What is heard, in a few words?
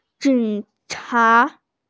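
"jing cha", Mandarin Chinese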